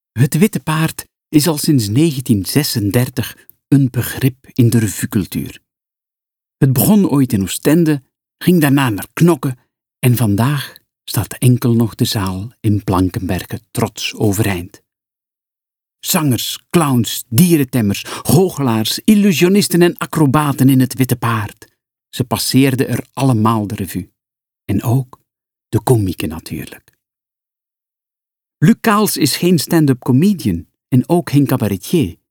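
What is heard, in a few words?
Flemish, female, corporate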